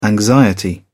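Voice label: "anxiety", British English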